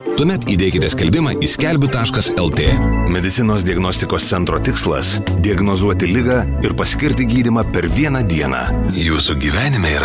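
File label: Lithuanian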